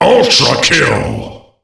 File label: ultrakill, announcer voice lines; Unreal Tournament; ut2003